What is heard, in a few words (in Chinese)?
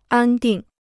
"安定", Chinese